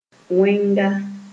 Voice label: "wẽnga", Moore

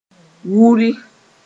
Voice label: "wuri", moore

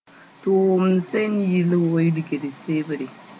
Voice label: "tʋʋmd sẽn yɩl wilgri sebre", moore; report

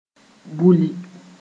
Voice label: "buli", moore

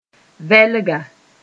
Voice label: "vɛlga", moore

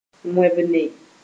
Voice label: "wẽbne", moore

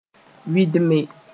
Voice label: "wɩdme", moore

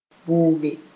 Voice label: "bʋge", Moore; become sticky

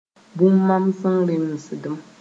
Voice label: "bũmb mams rẽesdem", moore

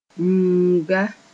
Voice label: "yũuga", moore

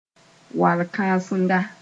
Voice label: "wal-kãsenga", Mooré

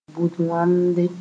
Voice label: "bʋd-wamde", Moore; seed drill

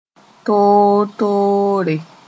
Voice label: "toor-toore", moore; differently

